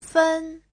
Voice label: "fen1", chinese